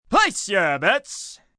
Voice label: Place Your Bets, Casino, gambling, male: 'Place your bets', (human voice speaking); Casino Sound Effects